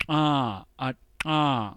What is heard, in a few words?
palatoalveolar click, phoneme